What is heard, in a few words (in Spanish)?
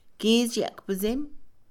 "mujer adolescente", Mixe, Mujer; Parentesco; INALI